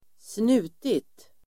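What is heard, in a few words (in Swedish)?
"snutit", Swedish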